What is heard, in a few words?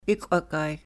"ikw'akay", sahaptin